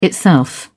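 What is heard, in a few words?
"itself", British English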